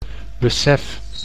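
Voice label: "besef", Dutch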